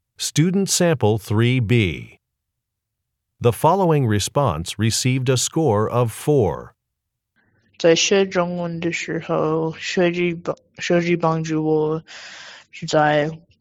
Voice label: chinese conversation; ap chinese language and culture